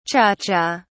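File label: "cha cha", British English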